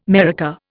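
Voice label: "maraca", Indian English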